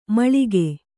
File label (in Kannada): "ಮೇಲಕ್ಕ", Kannada